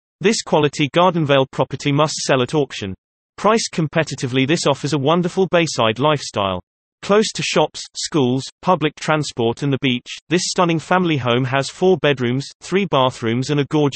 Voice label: UK presenter, real estate videos; My Real Estate Videos; Melbourne